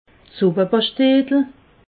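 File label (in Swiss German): "suppepaschtetel", Alsatian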